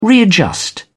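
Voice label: "readjust", British English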